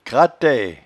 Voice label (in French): "cratee", patois jura